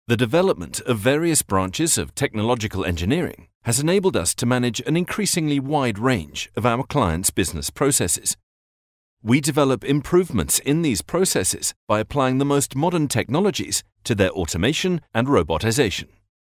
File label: locutor ingles english voiceover